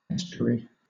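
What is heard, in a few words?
"estuary", British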